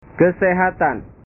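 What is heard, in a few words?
"kesehatan", Indonesian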